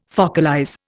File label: "focalize", Indian